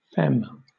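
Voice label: "femme", British English; pronunciations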